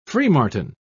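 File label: "freemartin", British English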